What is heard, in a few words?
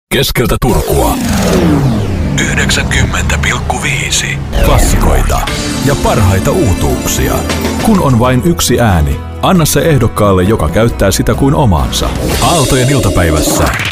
Finnish